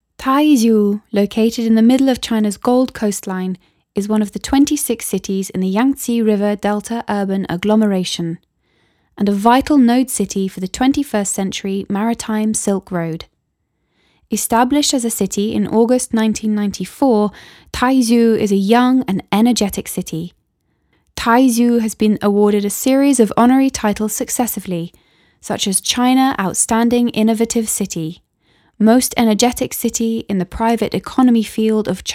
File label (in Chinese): 地区宣传片; 台州